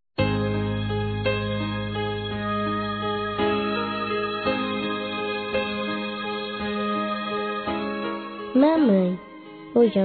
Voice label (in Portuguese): MÃE (VOZ INFANTIL) F, Telemensagens, Dia das Mães; Telemensagens 2017